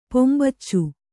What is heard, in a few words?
"ಪೊಂಬಚ್ಚು", Kannada